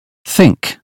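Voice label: "think", British phonetics; 英语音标发音（剑桥版）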